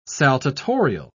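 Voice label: "saltatorial", British English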